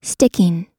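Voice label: "sticking", American English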